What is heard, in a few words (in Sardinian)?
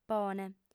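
"pone", female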